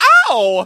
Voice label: hurt vo; angelo